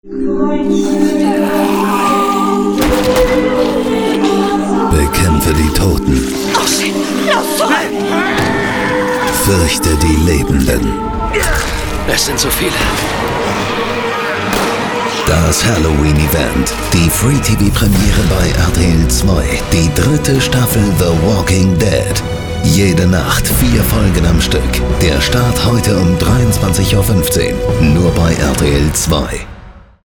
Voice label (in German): Radiospot; The Walking Dead